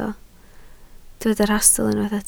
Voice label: "rhastl", Welsh